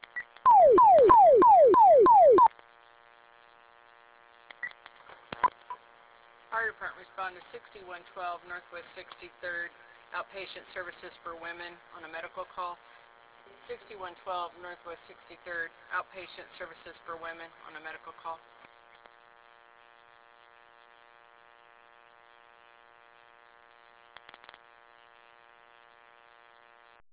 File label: 911 Radio; Outpatient Services OKC